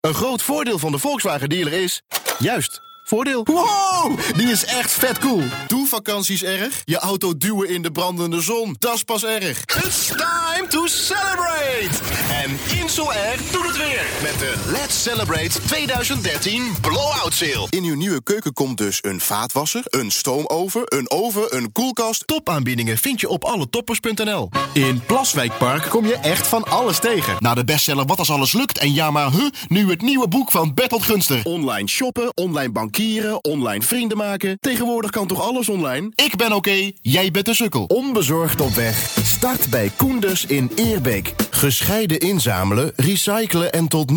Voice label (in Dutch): ENERGIEK